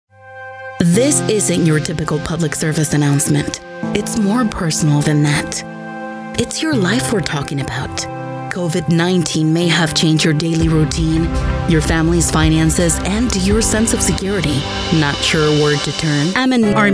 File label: Comercial English